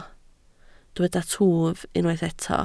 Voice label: "twf", Welsh

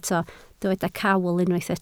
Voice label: "cawl", Welsh

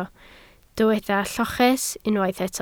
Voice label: "lloches", Welsh

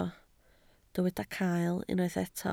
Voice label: "cael", Welsh

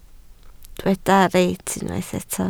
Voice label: "reit", Welsh

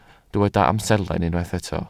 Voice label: "amserlen", Welsh

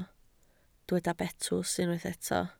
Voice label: "betws", Welsh

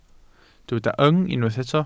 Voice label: "yng", Welsh

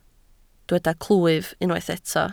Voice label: "clwyf", Welsh

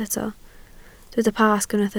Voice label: "Pasg", Welsh

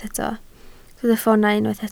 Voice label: "ffoonau", Welsh

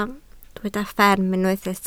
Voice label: "fferm", Welsh

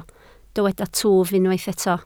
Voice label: "twf", Welsh

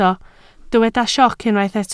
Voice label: "sioc", Welsh

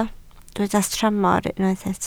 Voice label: "tramor", Welsh

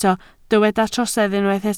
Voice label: "trosedd", Welsh

